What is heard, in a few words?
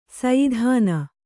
"ಸಯದಾನ", Kannada